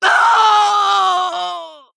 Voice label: Female Night Elf Death